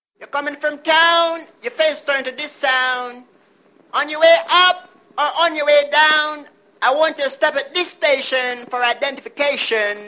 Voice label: station id count; Smile Jamaica